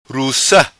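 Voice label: "Ruca", patua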